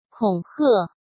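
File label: "恐吓" Mandarin Chinese; chinese flashcards; hsk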